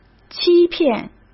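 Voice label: "欺骗", Mandarin Chinese; hsk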